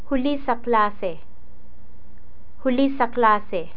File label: "huli sa klase", Tagalog